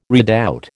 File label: "redoubt", American